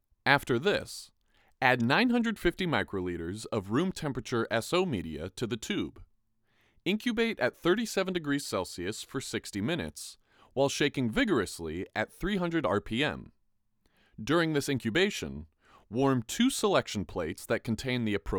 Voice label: Voiceover